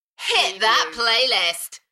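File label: British female Hit that play list Enthusiastic; beanstalk audio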